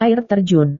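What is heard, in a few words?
"air terjun", Indonesian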